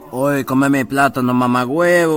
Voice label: accent

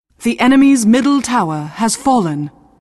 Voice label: announcer

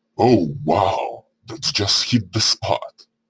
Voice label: follow voice

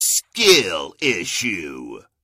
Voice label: skill issue, announcer; halo

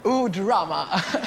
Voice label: ooo drama

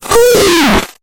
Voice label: oof fuzz

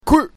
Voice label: queer voice chant

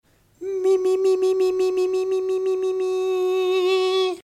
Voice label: mimimi

